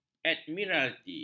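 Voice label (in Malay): "admiralti", Malay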